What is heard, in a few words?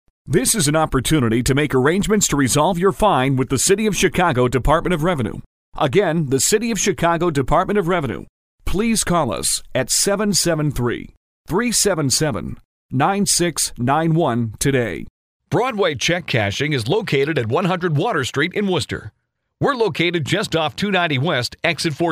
Phone IVR